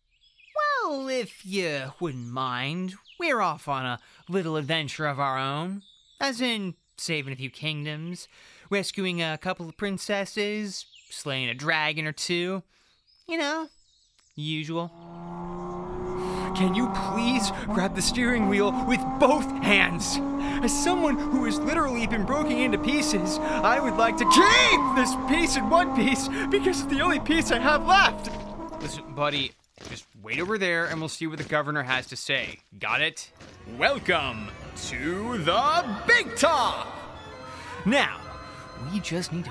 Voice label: Animation